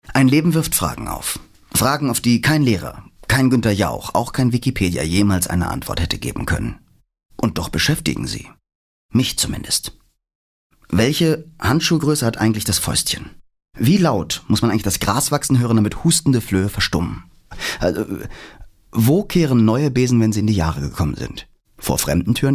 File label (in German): Schauspiel Dynamisch